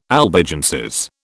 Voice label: "Albigenses", British